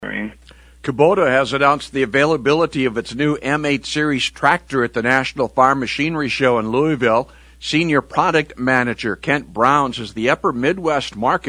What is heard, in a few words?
air check; Kubota